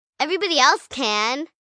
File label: Whine Else Can, 1 girl, 9 yrs; Whining; VoiceBox